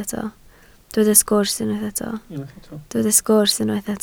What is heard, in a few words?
"sgwrs", Welsh